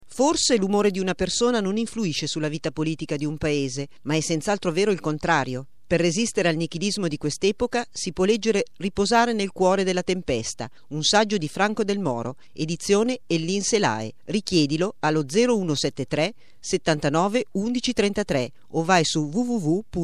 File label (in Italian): Spot Radio; Radio Popolare; Nella Tempesta